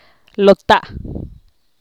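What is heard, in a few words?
22, Teotitlán del Valle Zapotec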